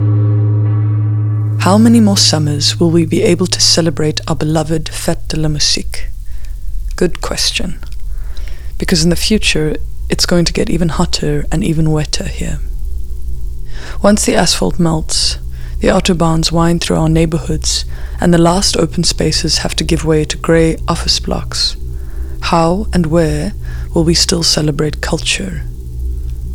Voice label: INTERVENTIONS ENGLISH